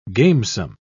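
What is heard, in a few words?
"gamesome", English, British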